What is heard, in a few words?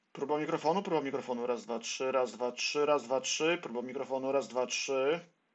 TWS6 mic test